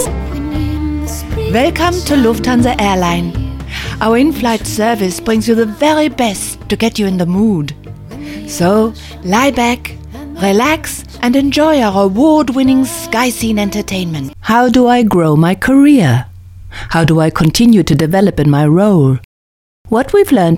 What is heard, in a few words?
English